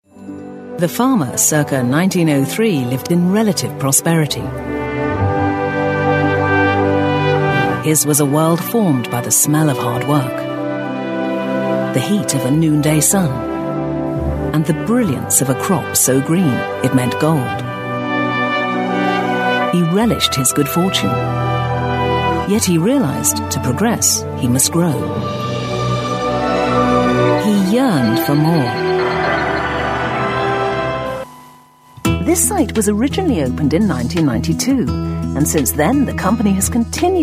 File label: documentary